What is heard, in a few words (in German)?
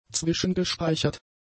"zwischengespeichert", German; adjectives